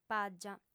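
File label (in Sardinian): "pàgia", female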